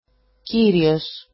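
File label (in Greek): "kirios", Greek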